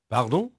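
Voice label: French male, voice; Pirates Of The Caribbean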